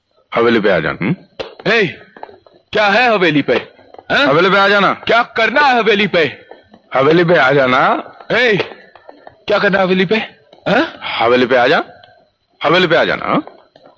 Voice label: Funny Dialogue Ringtone